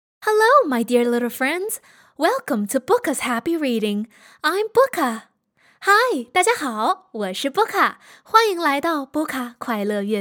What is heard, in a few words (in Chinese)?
双语绘本